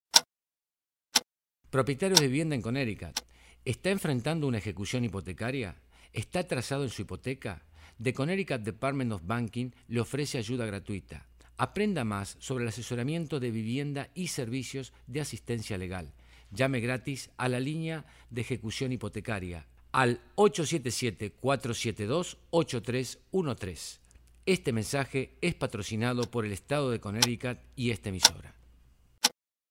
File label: foreclosure hotline psa spanish